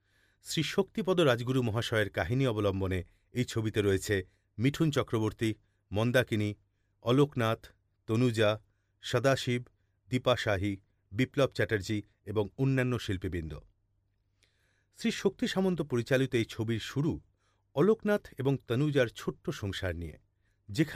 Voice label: male bengali voice over narrative; bangla